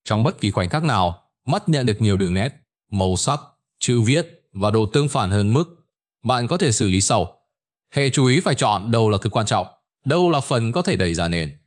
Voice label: narration